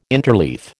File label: "interleaf", American